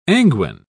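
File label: "anguine", British English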